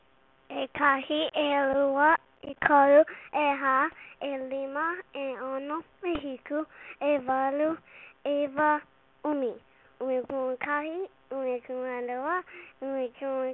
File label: Counting in Hawaiian